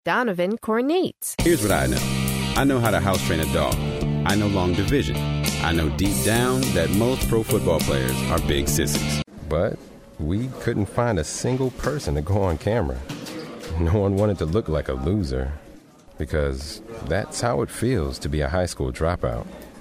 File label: Commercial